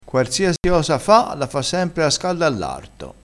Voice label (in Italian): "quarsiasi", crespinese